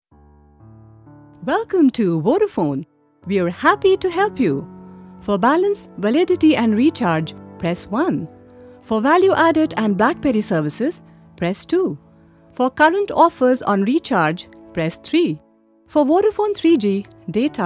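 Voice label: IVR English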